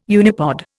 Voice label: "unipod", Indian